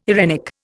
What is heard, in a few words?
"eirenic", Indian